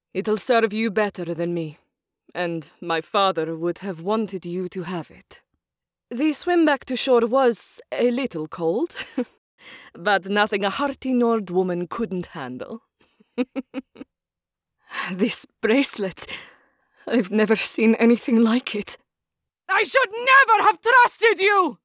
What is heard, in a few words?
Videogame Nordic